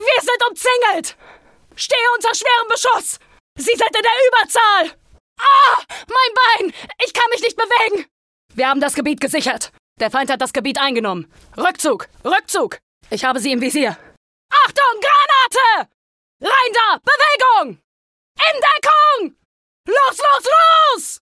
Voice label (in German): Sprecherin Sprachproben